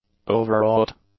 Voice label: "overwrought", English; adjectives